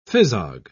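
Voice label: "phizog", British English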